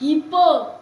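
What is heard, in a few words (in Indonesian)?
"Kuda nil", Indonesian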